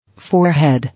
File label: "Forehead", English